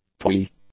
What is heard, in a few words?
"twee", English; adjectives